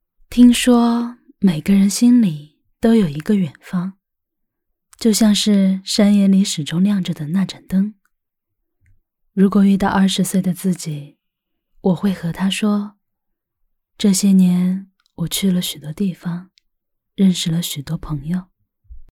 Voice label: 知性文艺配音

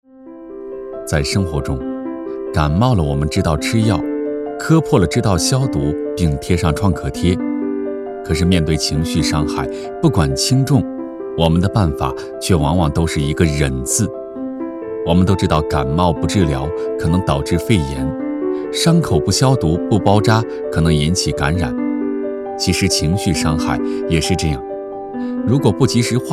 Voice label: 课件样音

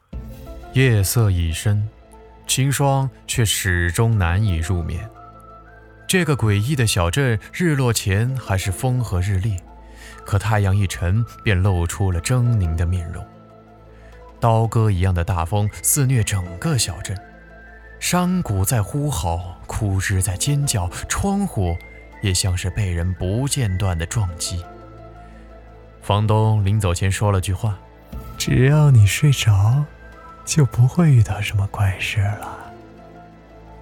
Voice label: 侦探悬疑试音

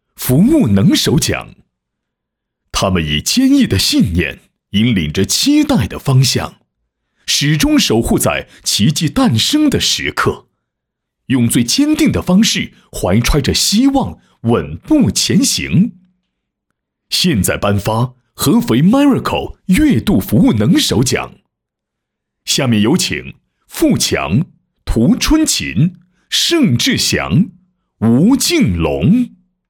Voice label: 男员工颁奖

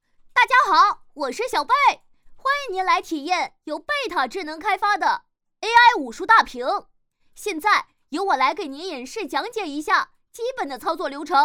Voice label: AI男童试音; 深语

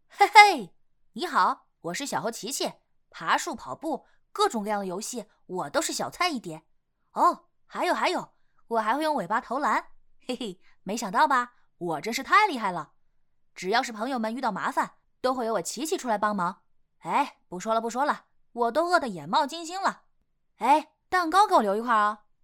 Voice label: 儿童角色男童

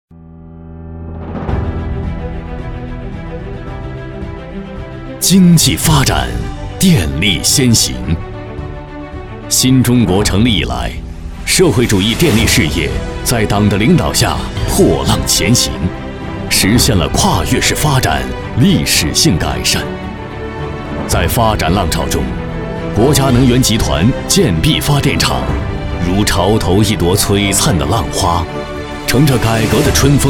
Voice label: 专题 大气 浑厚; 电网发展印象谏电

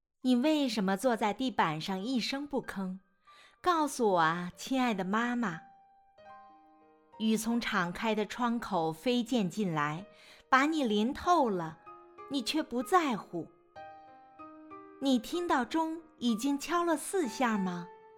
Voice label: 配乐; 新月集飞鸟集

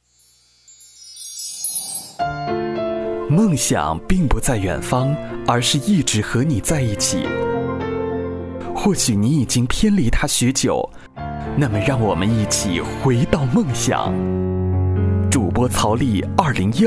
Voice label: 温暖男声 新书广告

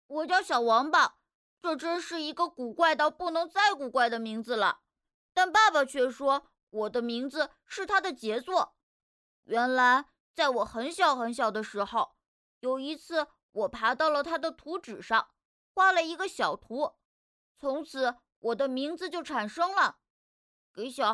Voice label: 岁男; 小王八

